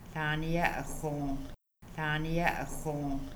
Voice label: He snores a lot, Kaska; Morning